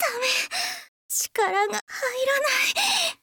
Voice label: swordwoman faint